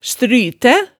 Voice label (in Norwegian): "strytæ", hemsedalsmaalet